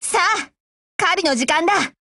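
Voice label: black action, voice, Japanese; GF Alarm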